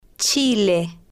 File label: "chile", spanish